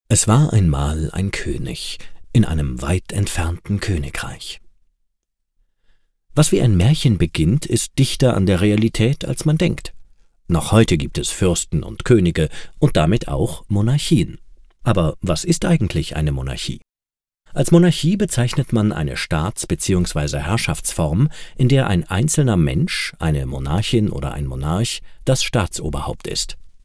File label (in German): Erklaerfilm; sprecher